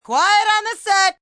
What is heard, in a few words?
Quiet On Set, assistant director, female 'Quiet on the set'; Film, movie, direction; human voice speaking; Talking Sound Effects